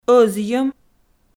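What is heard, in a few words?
"ӧзйӧм", Komi, female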